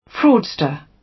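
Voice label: "fraudster", British; Crime and punishment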